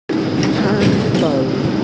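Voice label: "she2tou5", Mandarin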